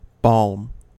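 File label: "balm", US English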